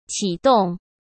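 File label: "启动", Mandarin Chinese; chinese flashcards; hsk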